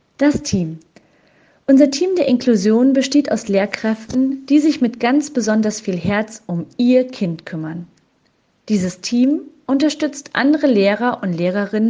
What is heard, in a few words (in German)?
einfache Sprache